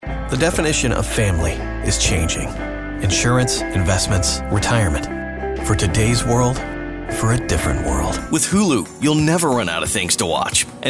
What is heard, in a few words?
men commercial; Young. Real. Conversational. Guy next door. Down to earth. Non-announcery. Not 'selly'.